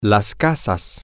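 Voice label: the houses, spanish, male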